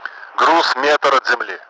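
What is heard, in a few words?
Russian speech, Mi-8MT; Messages meter ground; DCS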